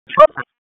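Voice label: Public Safety Tactical, p25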